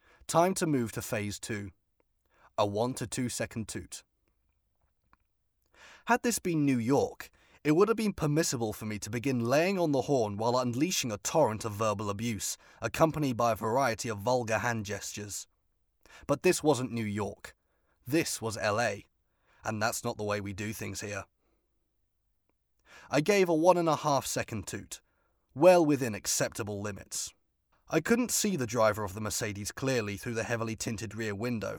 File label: Black Sedan British; Sample voices